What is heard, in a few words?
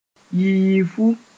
"yiifu", Mooré